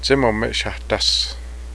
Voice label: clydesdales, cheyenne; tsé-momé'šêhahtase; shaggy-legged horses